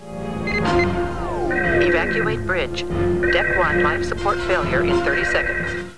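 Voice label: Computer Evacuate bridge. Deck one life support failure; startrek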